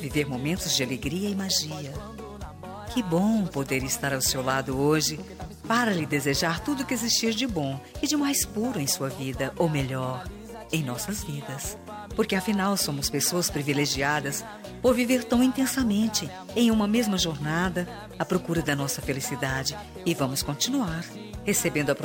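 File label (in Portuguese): dia dos pais esposa, marido voz feminina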